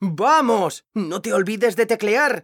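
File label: "animo", Spanish; mekanta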